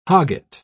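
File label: "hogget", British English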